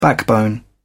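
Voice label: "backbone", British English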